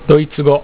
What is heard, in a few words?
German, japanese